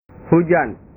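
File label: "hujan", Indonesian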